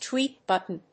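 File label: "tweet button", English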